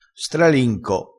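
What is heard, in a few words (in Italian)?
"stralinco", dialettale, crespinese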